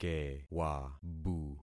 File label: "kewabu", concat syllables; Affixing experiment